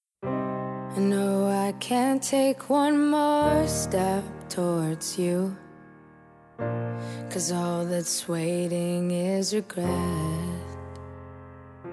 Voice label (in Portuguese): Aniversário Amiga (Nós Desejamos) Feminino; Aniversários; Aniversários diversos